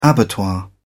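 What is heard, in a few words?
"abattoir", British English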